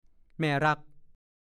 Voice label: "แม่รั่ก", ภาษาโคราช; แม่ของเพื่อนที่รักกันมาก

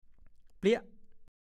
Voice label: "เป็นอ้าง", ภาษาโคราช

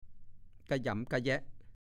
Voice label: "กะหยำกะแยะ", ภาษาโคราช; ฐานข้อมูลพจนานุกรมภาษาโคราช

